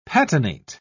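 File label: "patinate", British English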